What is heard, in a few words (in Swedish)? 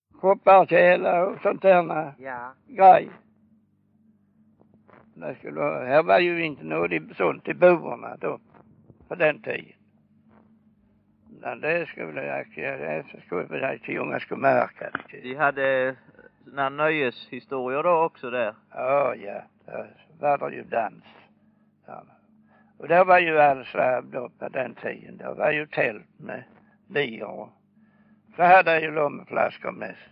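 Örkelljunga Skåne, dialekter; Folkminnen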